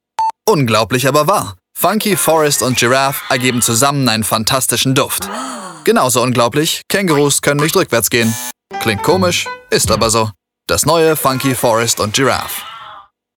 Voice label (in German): Werbung Funky Forest